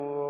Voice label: Arabic